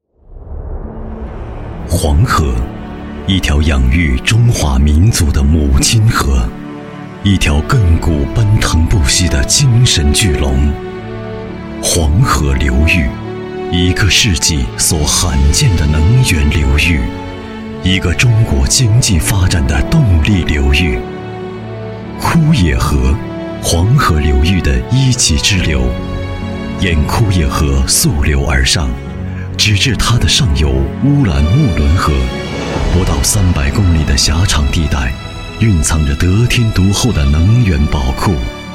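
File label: CN M narration, Chinese, male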